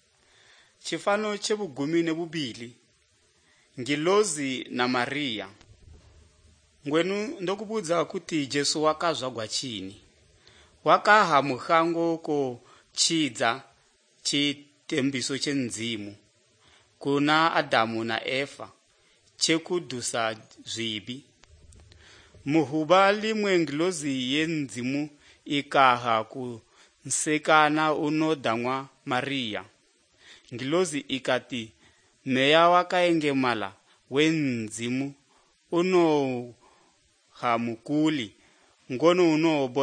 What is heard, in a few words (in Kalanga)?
good news kalanga lilima, drama